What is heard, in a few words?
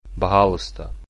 you're welcome, sakha